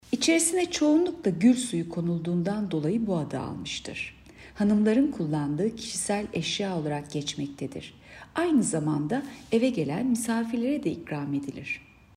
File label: turkce